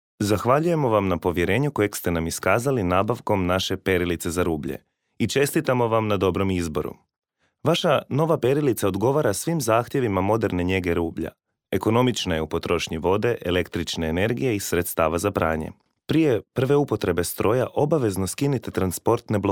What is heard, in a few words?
male croatian voice over talent narrative